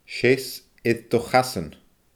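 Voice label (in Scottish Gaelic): "seas air do chasan", Scottish Gaelic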